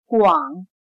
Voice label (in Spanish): "广", Chino